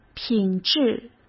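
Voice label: "品质" Mandarin Chinese; hsk; chinese-flashcards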